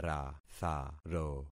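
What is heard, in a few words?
"ratharo", concatenated syllables; Affixing experiment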